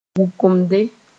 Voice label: "wʋkemde", moore; climbing plant sp

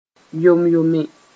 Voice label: "yom-yome", moore; loose

